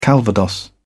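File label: "calvados", British English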